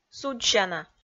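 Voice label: "судзсяна", Komi-Zyrian, female; audio dict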